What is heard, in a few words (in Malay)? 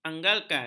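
"anggalkan", Malay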